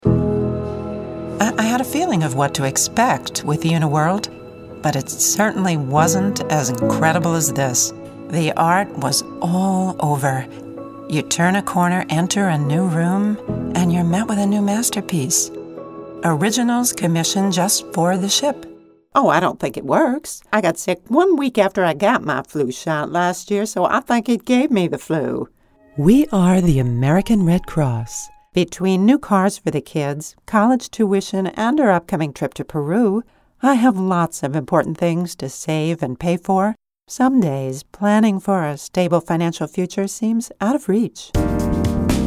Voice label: commerical